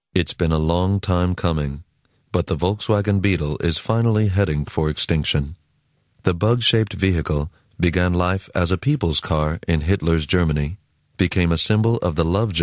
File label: narrative; vw beetle